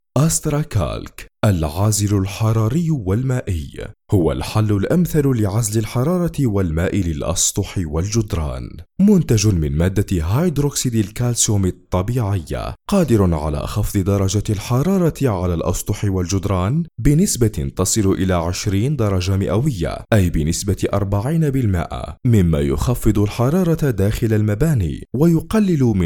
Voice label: Arabic Voiceover